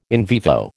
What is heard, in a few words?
"in vivo", American